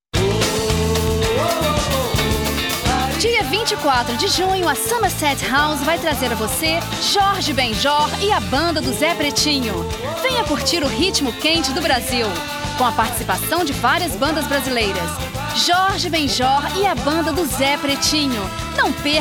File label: female brazilian portuguese promo